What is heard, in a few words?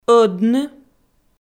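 "ӧдны", Komi-Zyrian, female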